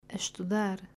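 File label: "Estudar", Portuguese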